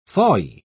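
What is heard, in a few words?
"thawy", British English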